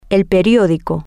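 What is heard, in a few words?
newspaper, Spanish, female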